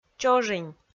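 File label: "чожинь", Komi-Zyrian, female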